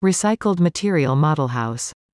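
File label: "recycled Material Model House", English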